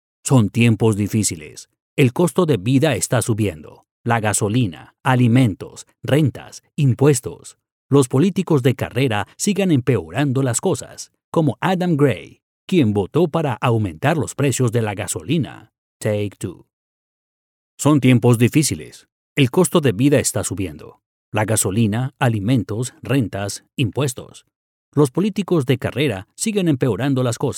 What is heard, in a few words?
spanish latin american political ads